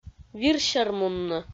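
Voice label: "вир-сермунны", Komi, female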